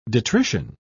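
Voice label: "detrition", British English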